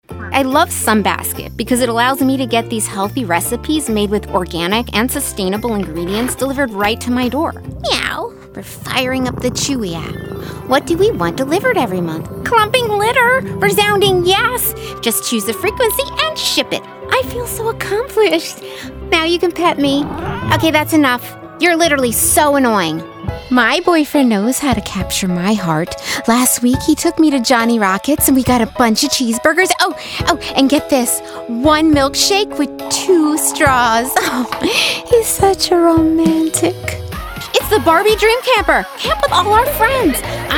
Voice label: Commercial